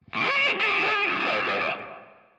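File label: mocking through a megaphone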